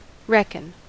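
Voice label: "reckon", American; pronunciations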